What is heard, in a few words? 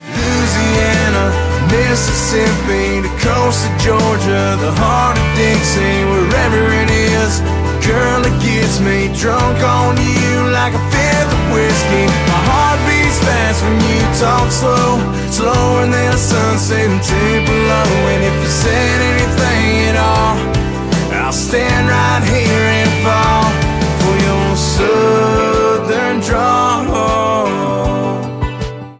Southern Drawl